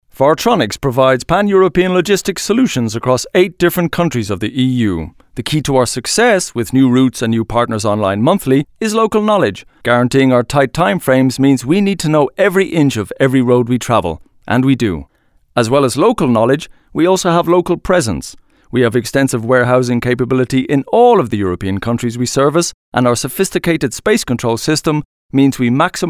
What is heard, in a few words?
english irish ivr